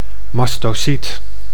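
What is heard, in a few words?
"mastocyt", Dutch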